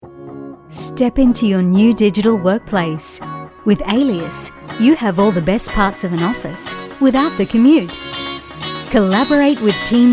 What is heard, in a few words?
Corporate